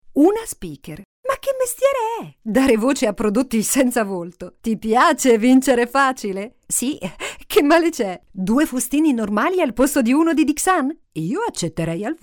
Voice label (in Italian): Ironica